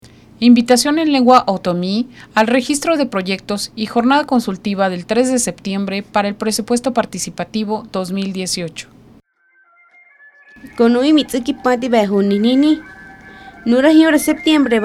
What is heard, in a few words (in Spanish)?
Perifoneo Otomi